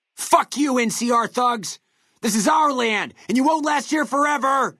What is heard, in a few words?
male adult take water; FNV